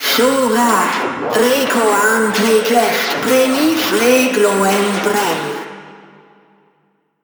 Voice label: Intro Sp, ger; Yeesha

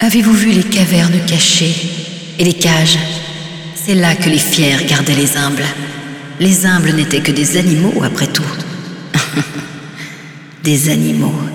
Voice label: Speech, fre

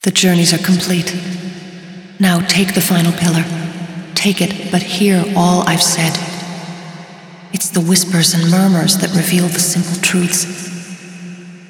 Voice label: Speech eng; Yeesha; moul-assets

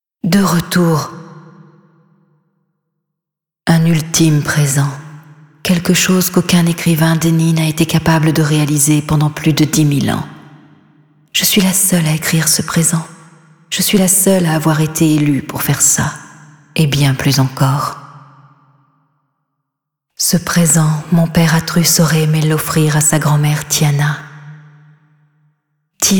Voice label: Final Sp fre; Yeesha

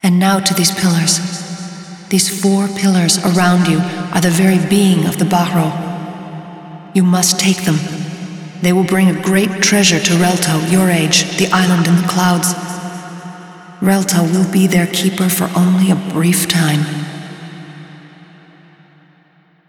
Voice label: Speech, eng

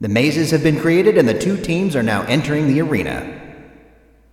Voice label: Wall Entry eng

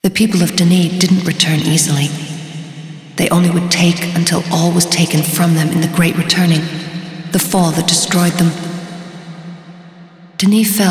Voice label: Speech, eng; Yeesha

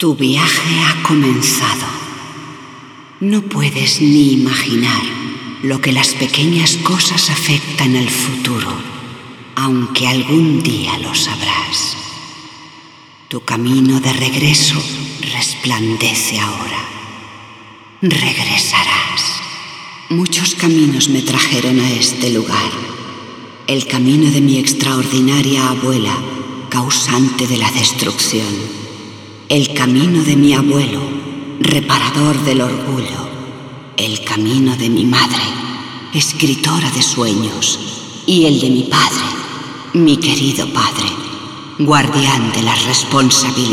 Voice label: Speech spa; Yeesha

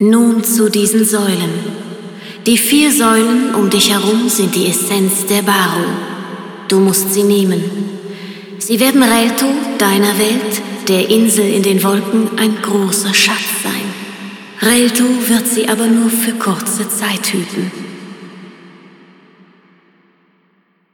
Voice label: Speech ger; Yeesha; moul-assets

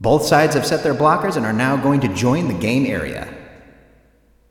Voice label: Wall Entry eng

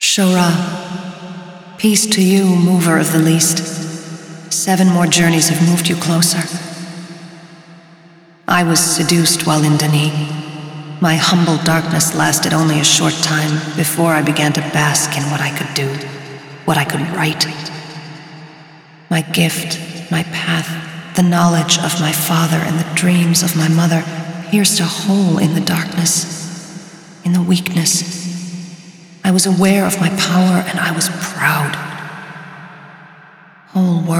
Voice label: Speech, eng

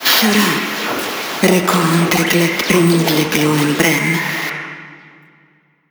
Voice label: Intro Sp ita; Yeesha

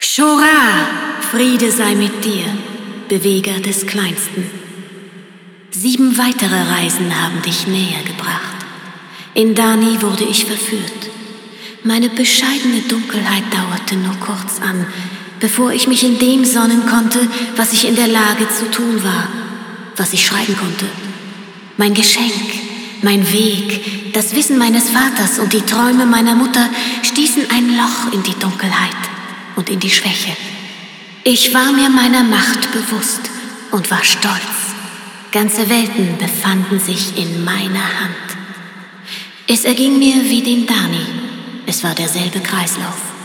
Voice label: Speech ger; Yeesha

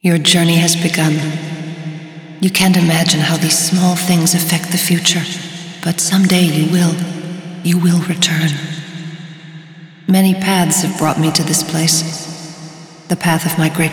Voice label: Speech eng; Yeesha

